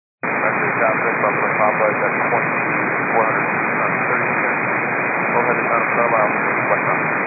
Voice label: 122 925MHz, scanner radio